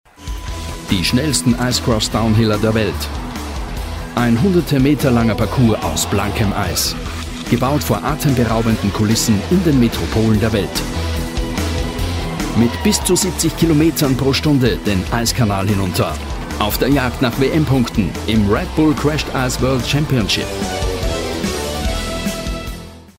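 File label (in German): Austrian, Cool; Fremdtake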